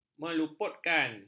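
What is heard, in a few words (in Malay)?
"meluputkan", Malay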